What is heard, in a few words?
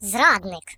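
"Traitor", British English; hedgewars; Default uk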